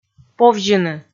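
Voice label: "повзьыны", Komi, female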